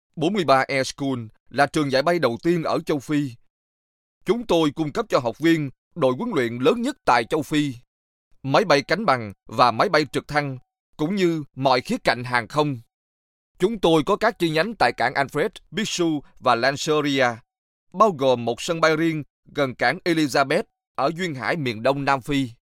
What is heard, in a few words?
VN male, vietnamese; 越南语配音员（男1）